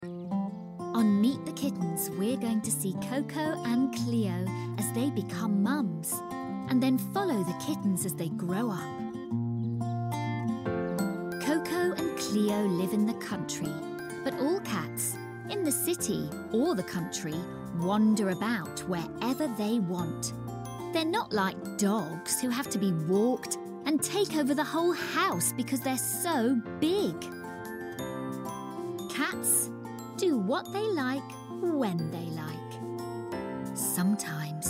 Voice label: Narrative Reel

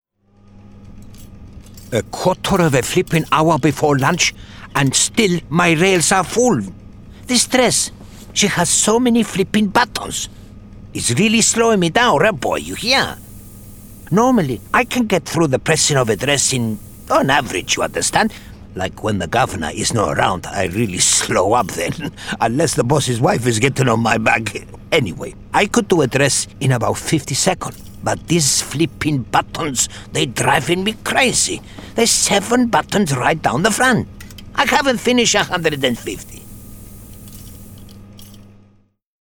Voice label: Greek Character

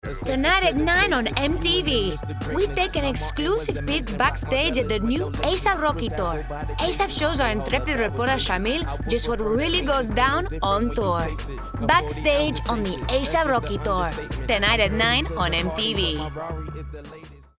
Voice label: spanish; MTV

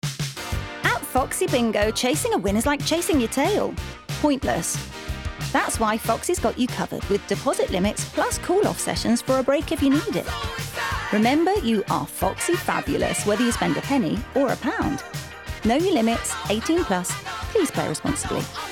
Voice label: Fun upbeat cheeky; Foxy Bingo

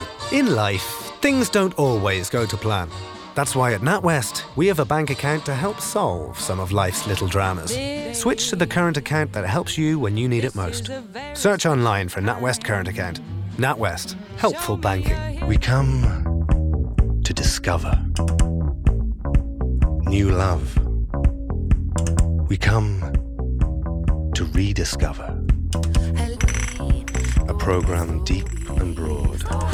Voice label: Commercials

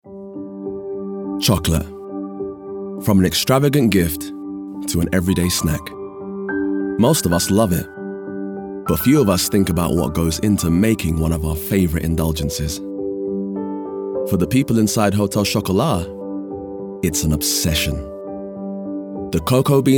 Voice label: Docu; Hotel Chocolate

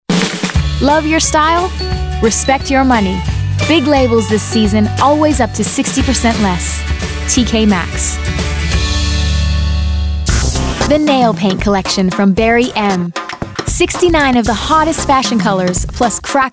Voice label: Commercial Showreel

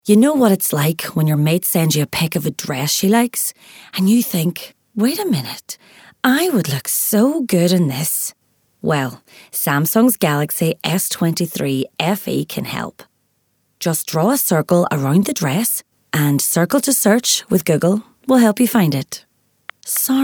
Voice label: Ad; Samsung

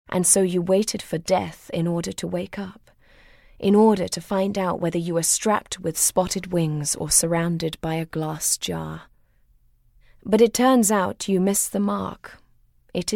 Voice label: Narrative Compilation

